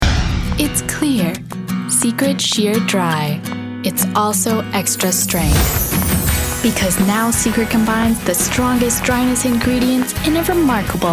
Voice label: commercial; Secret Sheer Dry